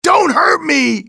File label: dont hurt, english male; XBOX; civ